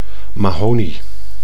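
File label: "mahonie", Dutch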